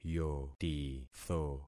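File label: "yotitho", concatenated syllables; Affixing experiment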